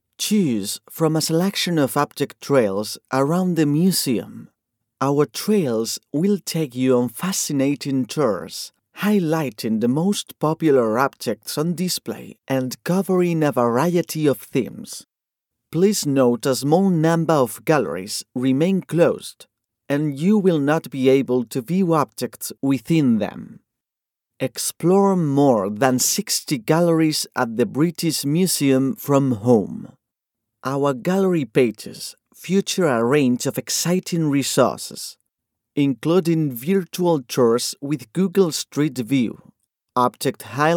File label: english commercial